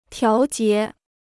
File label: "调节", Chinese